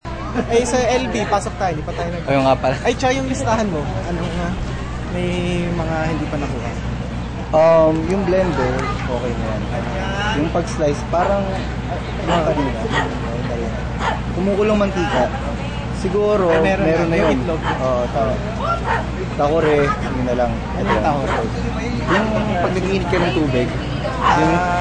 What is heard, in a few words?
outside talking